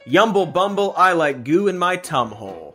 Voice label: yumble bumble i like goo in my tum hole, gibbrish